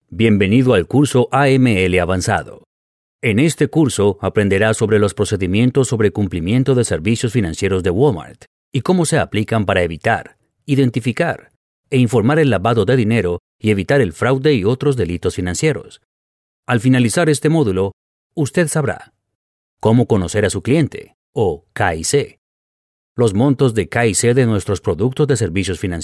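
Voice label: Spanish Latin American, male, corporate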